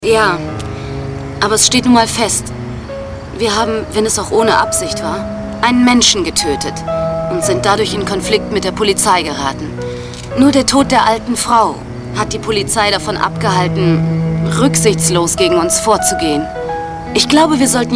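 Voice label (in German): synchron, sprecher; LOFT VOICES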